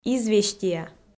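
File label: "известия", Komi, female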